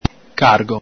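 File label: "cargo", Veneto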